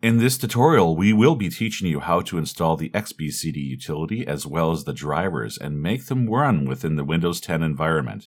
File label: Narrator